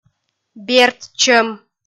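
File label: "бертчӧм", Komi, female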